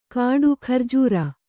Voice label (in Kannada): "ಘೂರ್ಜರಿ", Kannada